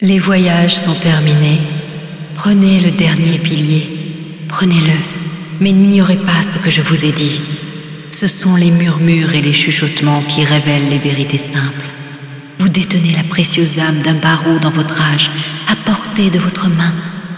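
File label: Yeesha Speech french; moul-assets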